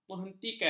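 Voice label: "menghentikan", Malay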